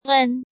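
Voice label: "Ēn", Chinese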